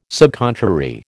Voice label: "subcontrary", American